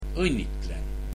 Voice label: Congratulations, estonian